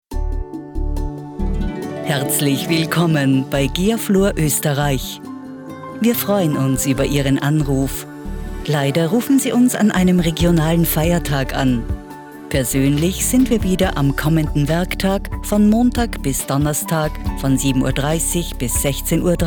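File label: Austrian German, female, ivr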